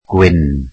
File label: welsh white